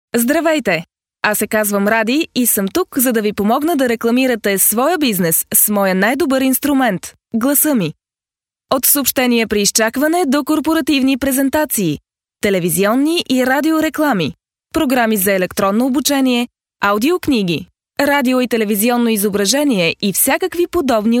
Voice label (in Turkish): Bulgarca Kadin; seslendirme